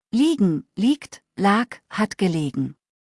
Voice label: "liegen", deutsch; verben kurzliste

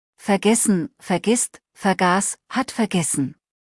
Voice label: "vergessen", deutsch; verben kurzliste

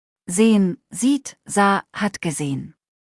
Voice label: "sehen", deutsch; verben kurzliste

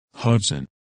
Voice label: "Hudson", men names

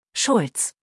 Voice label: "Schulz", deutsch nachnamen

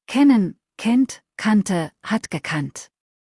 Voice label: "kennen", deutsch; verben kurzliste

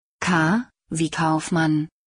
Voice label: "kaufmann", deutsch; buchstabieren

